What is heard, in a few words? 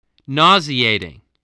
"nauseating", voice